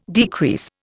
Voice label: "decrease", Indian